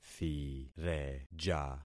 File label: "thireja", concat syllables; Affixing experiment